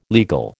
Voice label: "legal", American